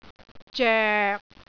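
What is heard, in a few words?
"zoek6", Cantonese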